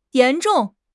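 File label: "yan2 zhong4", Mandarin Chinese; HSK4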